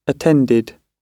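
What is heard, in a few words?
"attended" British English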